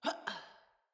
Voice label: Dismount Female, Battle Barks; Envoy

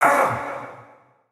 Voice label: Pain Spider, en; Mendicant Wazard

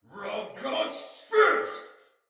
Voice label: Pre Death, Soldier Male; Battle; Barks; Dialog; Sinecure Soldier Male B

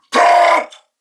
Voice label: Attack Male, en

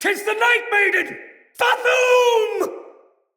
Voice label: Cry, Soldier Male; Dendrit

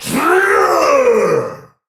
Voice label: Attack Effort Heavy; Tau; Dax; Battle Barks

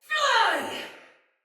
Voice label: Shoot Arrow, Soldier Female; Sinecure Soldier Female A

